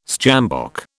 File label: "sjambok", British